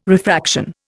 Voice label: "refraction", Indian